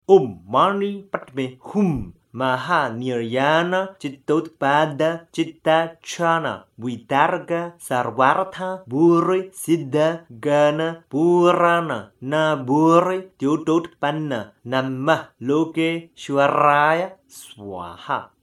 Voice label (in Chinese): 觀音靈感真言(直誦); 觀世音菩薩系列 Avalokiteśvara Series